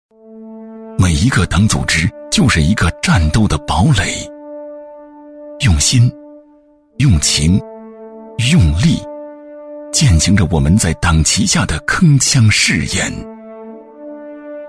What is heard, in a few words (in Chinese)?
男 企业宣传片 党建 正气严肃; 男94-高端厚重 大气浑厚; 联网公司党建